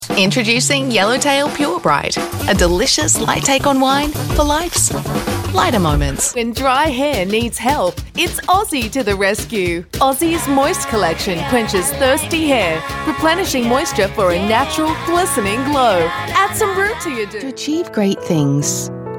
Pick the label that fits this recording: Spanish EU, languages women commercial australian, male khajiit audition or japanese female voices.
languages women commercial australian